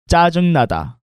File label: "jjajeungnada", Korean